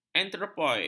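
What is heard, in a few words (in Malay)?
"antropoid", Malay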